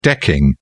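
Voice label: "decking", British English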